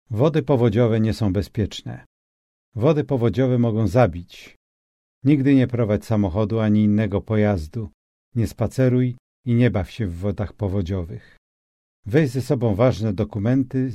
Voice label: polish floodsafe audio

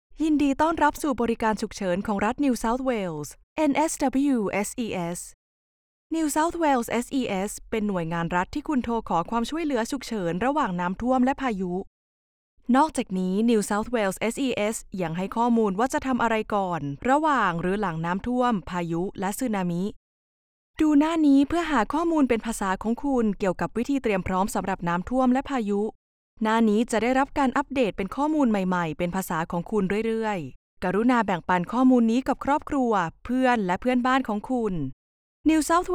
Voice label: thai

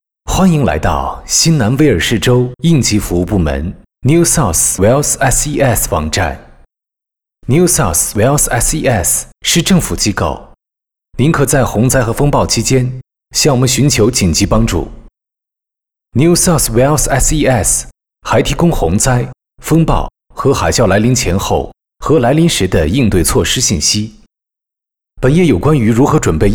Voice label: mandarin chinese simplified

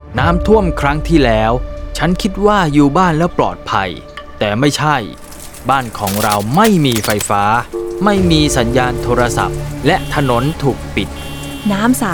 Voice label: radio ad know your risk thai

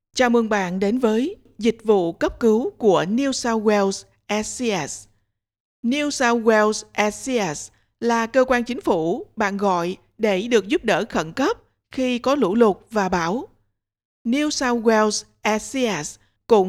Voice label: vietnamese

